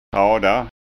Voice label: "trada", skanska

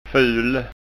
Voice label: "ful", skanska ord

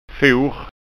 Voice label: "for", skanska ord

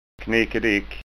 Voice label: "knickedick", skanska

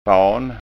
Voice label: "fan", skanska ord

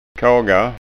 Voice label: "kaga", skånska ord

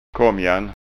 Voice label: "kamjan", skanska

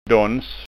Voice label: "dons", skanska

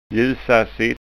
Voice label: "ljusasitt", skanskaord

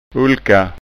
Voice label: "ulka", skanska ord

